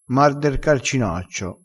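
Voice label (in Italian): "mar der", dialettale crespinese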